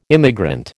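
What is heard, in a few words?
"immigrant", American English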